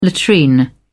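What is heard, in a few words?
"latrine", British English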